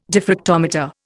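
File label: "diffractometer", Indian English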